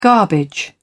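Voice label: "garbage", English, British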